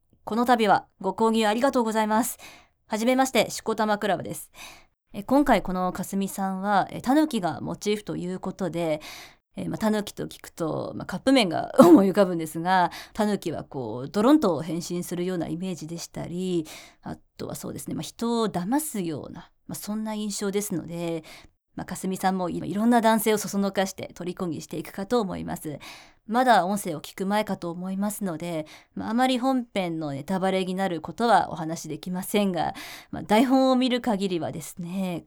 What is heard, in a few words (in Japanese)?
収録前フリートーク